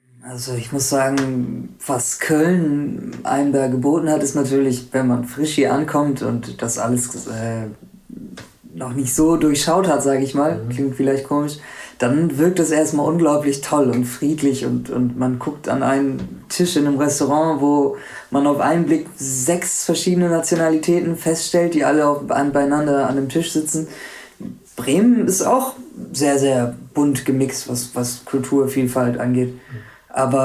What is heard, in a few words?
punjab pakistan